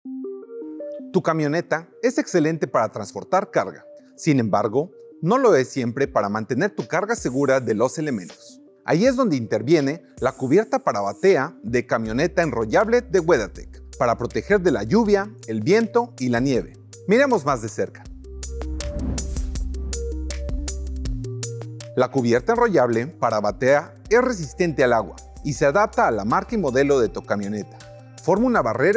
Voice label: Roll Up Pickup Truck Bed Cover Spanish